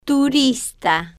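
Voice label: "turista", Spanish, female, slow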